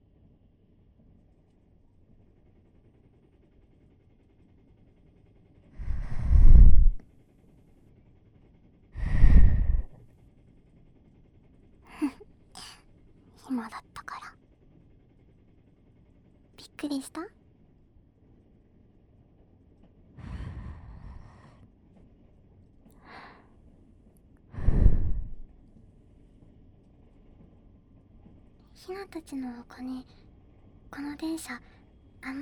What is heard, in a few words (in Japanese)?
ローカル電車で添い寝【BGMなし】, ASMR